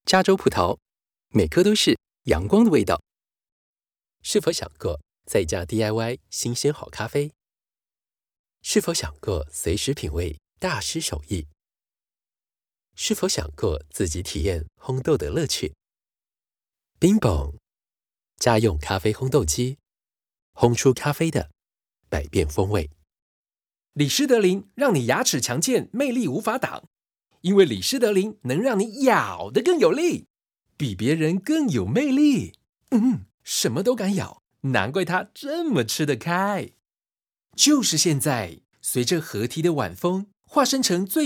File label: chinese mandarin commercial